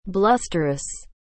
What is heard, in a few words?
"blusterous", English